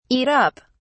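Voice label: "eat up", British English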